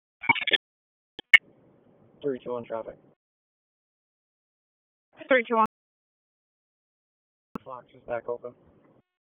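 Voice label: Raleigh Police Northeast District Dispatch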